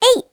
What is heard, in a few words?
girl voice; human